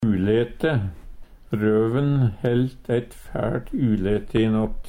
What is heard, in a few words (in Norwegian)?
ulaete, Numedalsmål; Røven heldt eit fæLt ulæte i nott.